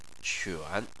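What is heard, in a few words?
"quan", Chinese, tone 3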